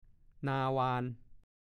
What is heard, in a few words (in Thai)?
"นายน่อย", ภาษาโคราช; 1. ลูกชายคนเล็ก ลูกชายคนสุดท้อง เรียกชายที่อายุอ่อนกว่า